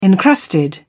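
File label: "encrusted", British English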